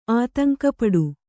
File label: "ಆತಂಕಪಡು", Kannada